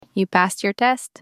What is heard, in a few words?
Female Passed The Test